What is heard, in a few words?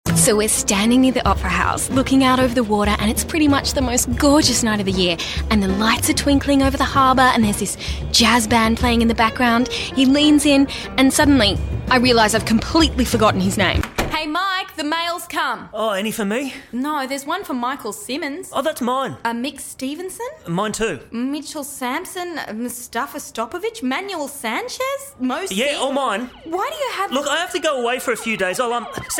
Voice Acting